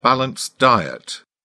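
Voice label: "balanced diet", British English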